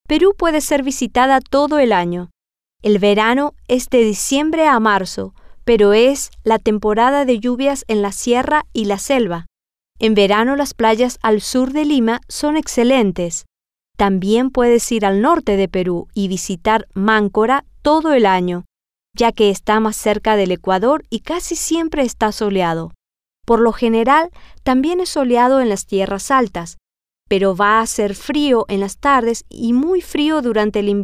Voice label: Spanish; cuando Ir Y Que Empacar

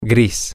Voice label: grey, spanish, M

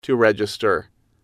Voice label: "to register", English

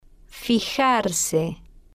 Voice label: "fijarse", spanish

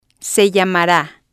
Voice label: "se llamara", spanish

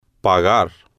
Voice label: "pagar", Spanish

